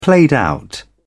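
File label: "played-out", British English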